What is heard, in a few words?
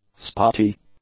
"spotty", english; adjectives